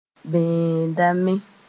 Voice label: "bẽedame", Mooré